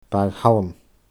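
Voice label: "Bàgh Shaluim", Scottish Gaelic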